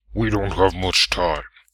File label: "We Don't Have Much Time", human male heroic; customnpcs